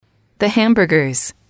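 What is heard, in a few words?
"the hamburgers", English, female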